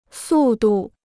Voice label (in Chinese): "速度", Chinese